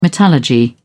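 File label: "metallurgy", English, British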